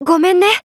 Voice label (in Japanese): VO jp